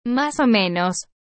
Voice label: mas o menos, Spain